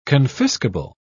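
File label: "confiscable", British English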